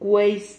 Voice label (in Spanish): "cueis", Silabas